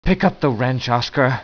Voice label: ch annoyed

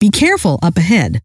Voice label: be careful, scientist female

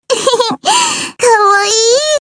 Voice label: kawaii

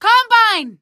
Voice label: rebel fem, "combine"; hl2; npc

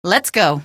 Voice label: rebel fem, "let's go"; hl2; npc

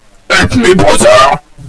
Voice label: help, radio

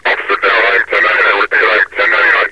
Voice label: officer down i am 10-99, metrocop zombie; scmod

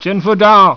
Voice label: viet my foot hurts

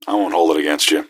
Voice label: answer, gasmask; scmod

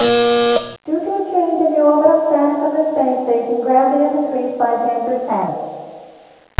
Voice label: announce

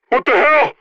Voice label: scared

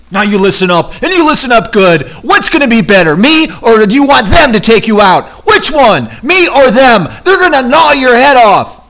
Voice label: pep talk

